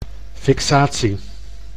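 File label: "fixatie", Dutch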